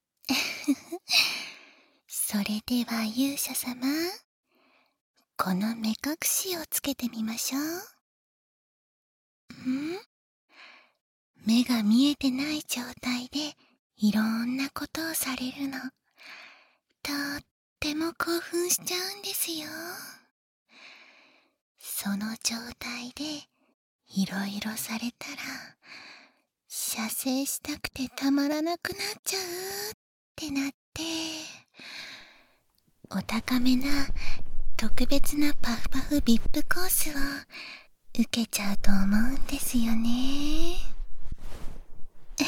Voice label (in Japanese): VIP裏メニューへの誘惑（囁き重視編集 効果音あり）, ASMR